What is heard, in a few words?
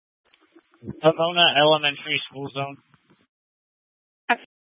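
Incident Tac 3, Scanner audio recording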